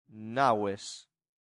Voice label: "naves", latin; santillana